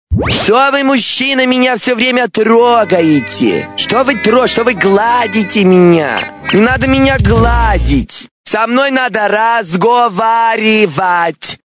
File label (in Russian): govorit telefon sekretarsha